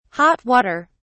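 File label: "hot water", British English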